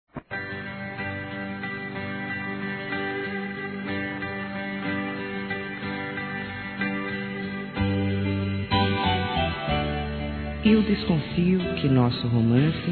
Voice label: ROMPIMENTO, F

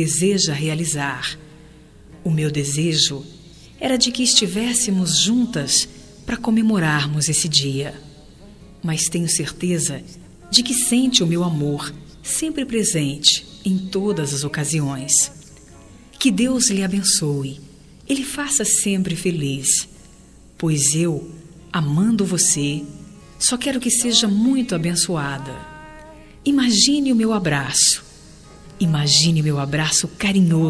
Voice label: Aniversário Filha Distante Feminino

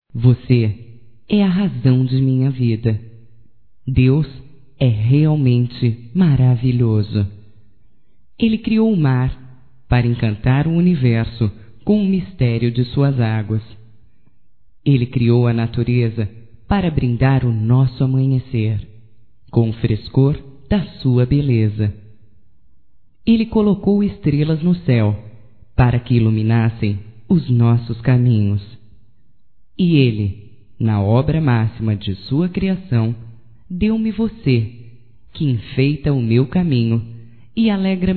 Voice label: VOCE É (F); Românticas

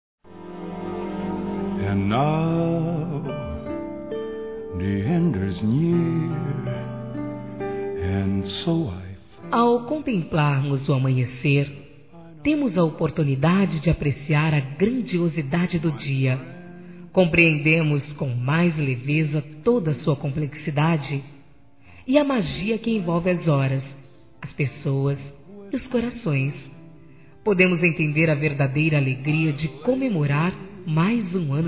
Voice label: Aniversário Avô Feminino

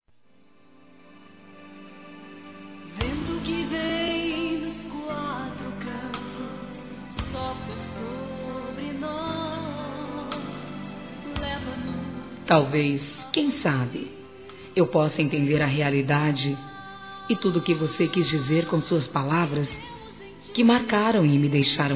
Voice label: Trilha RECONCILIAÇÃO, Gospel, fem; Telemensagens; Telemensagens 2017

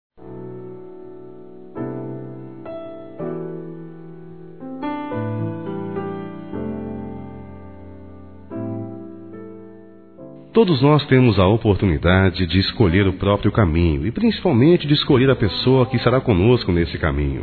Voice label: Bodas de Ouro Romântica Masculino, Telemensagens; Telemensagens 2017

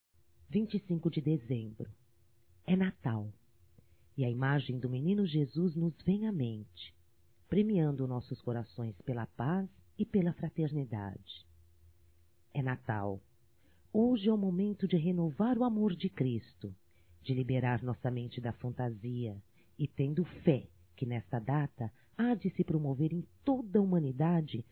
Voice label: MENSAGEM UNIVERSAL, Telemensagens, Evangelico, fem; Telemensagens 2017

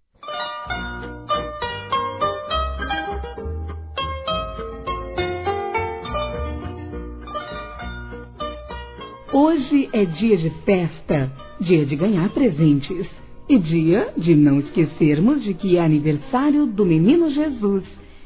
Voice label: Natal PARA Criança Feminino; Telemensagens; Natal e Ano novo

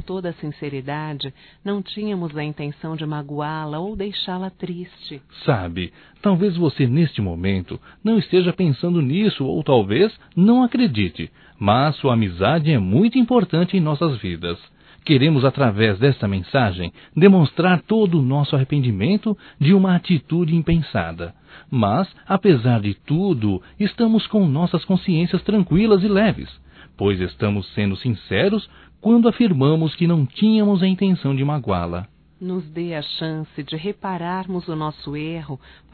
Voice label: DESCULPAS AMIGA DUAS VOZES; Amizades; fem; Telemensagens 2017

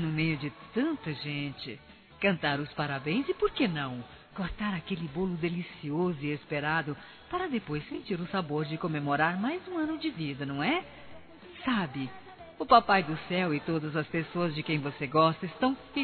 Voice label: Criança Geral Feminino; Aniversários; Aniv Familiar

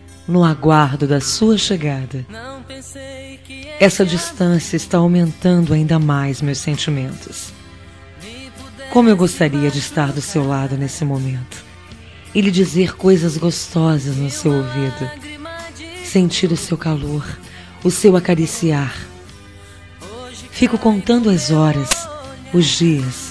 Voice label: ROM SAUDADE FEM; Telemensagens; Saudades

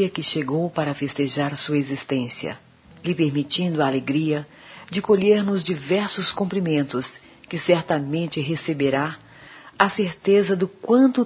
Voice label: Aniversário Neutro, fem; Aniversários; Aniversários diversos

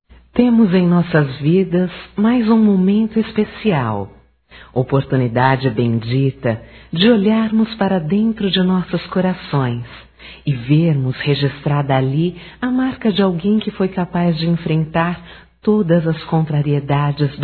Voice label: Páscoa Geral Feminino, Telemensagens, Só Voz; Telemensagens 2017

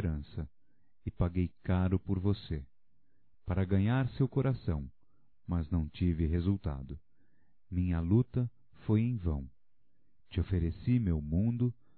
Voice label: O Amor é um Jogo Masculino, Voz; Telemensagens; Só Voz; Telemensagens 2017

